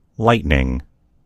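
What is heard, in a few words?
"lightning", American English; gcompris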